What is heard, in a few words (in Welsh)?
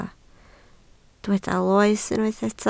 "loes", Welsh